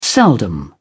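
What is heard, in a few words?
"seldom", British English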